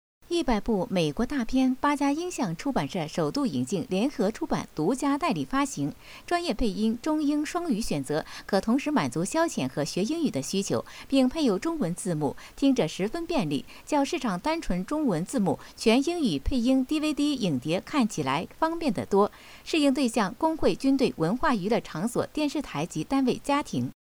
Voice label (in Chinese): 广告原声